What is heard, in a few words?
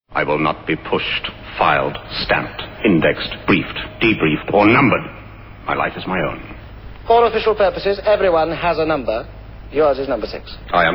irish radio